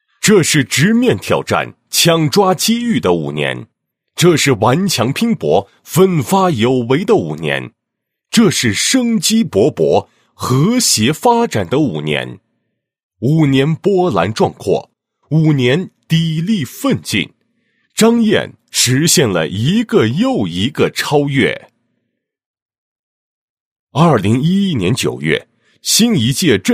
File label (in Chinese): 男 党建; 张堰镇党建工作